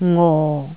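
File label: "ngo4", Cantonese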